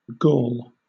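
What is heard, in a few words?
"gall", British English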